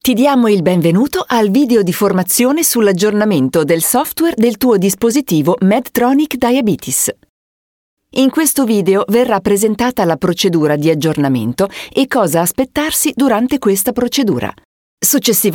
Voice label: italian voice over female elearning